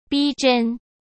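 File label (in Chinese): "逼真", Mandarin Chinese; chinese flashcards; hsk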